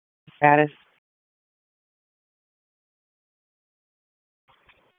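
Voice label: Raleigh Police Northeast District Dispatch; wake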